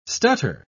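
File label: "stutter", English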